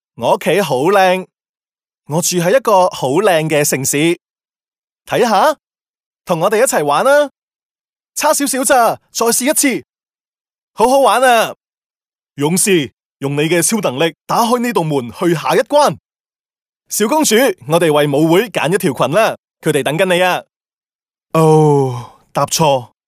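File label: Cantonese male children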